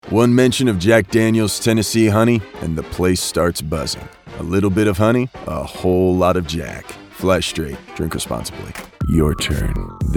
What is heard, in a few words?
commercial